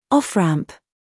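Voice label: "humor", British; off-ramp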